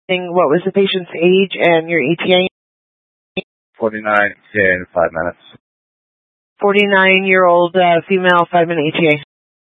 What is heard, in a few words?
Fire Dispatch; K8 - FDCOM 8 - South; C- Chandler